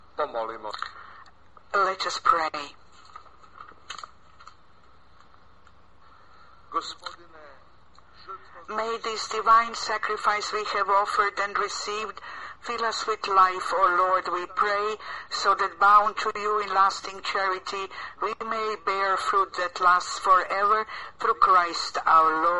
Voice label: Prayer and Announcement